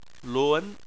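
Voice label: "lun", Chinese, second tone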